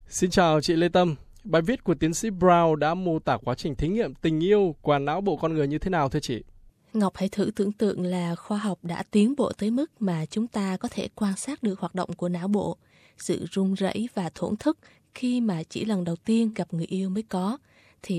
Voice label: vietnamese; SBS Vietnamese; Có ai định nghĩa được tình yêu

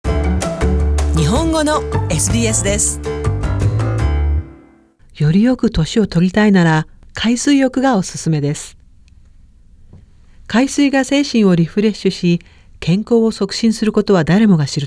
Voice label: japanese; Viva: より良く老いるには海水浴がお勧めです。; SBS Japanese

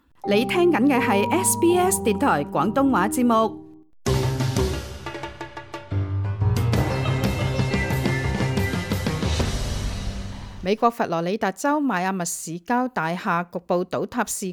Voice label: cantonese; SBS Chinese; 【邁阿密塌樓事故】三年前已現端倪

